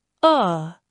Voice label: "ʊ", phonetics sound